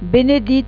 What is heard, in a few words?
"benedit", occitans